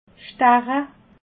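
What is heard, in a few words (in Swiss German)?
"stache", Alsatian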